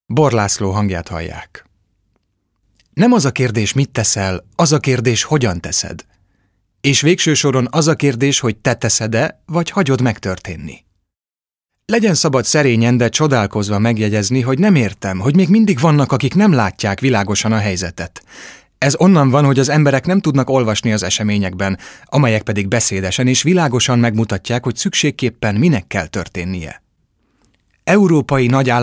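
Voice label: hungarian male narration; voice demos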